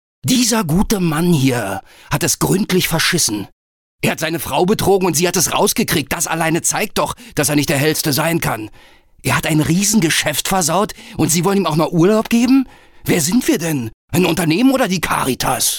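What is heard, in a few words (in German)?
Schauspiel Aggressiv; Fremdtake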